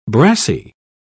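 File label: "brassie", British English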